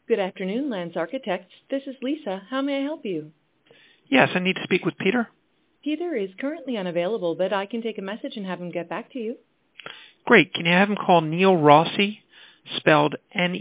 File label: answering service recording office answering service